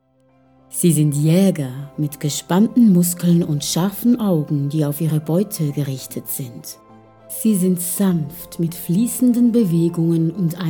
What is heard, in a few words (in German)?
Hörprobe Doku